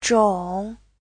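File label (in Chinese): "zhong3" Chinese; (lượng từ) loại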